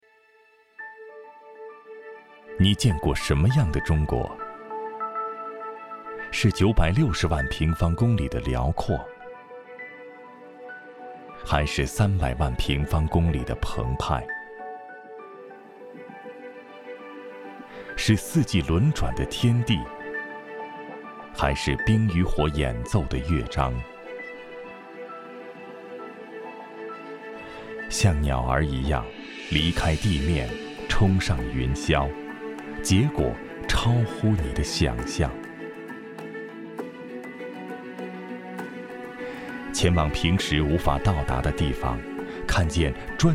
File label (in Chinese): 男, 中文, 纪录片, 航拍中国; 稿件练习